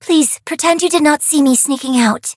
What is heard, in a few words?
touch, en; cookie run